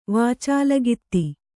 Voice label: "ವಾಚಾಲಗಿತ್ತಿ", kannada